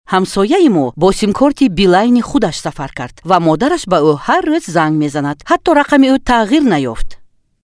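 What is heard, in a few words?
Tajikistan female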